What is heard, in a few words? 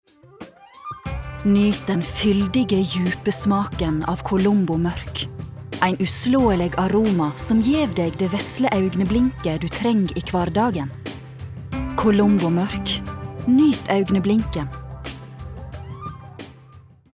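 Norwegian soft sell nordfjordsk nynorsk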